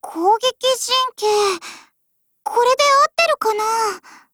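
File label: attack, Japanese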